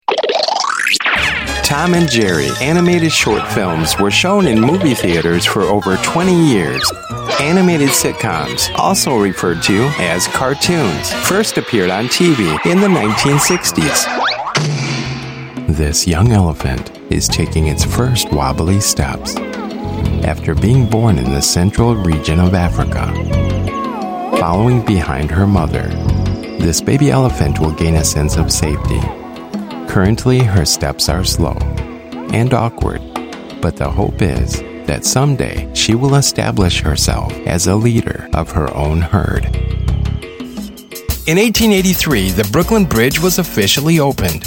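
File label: US English Narration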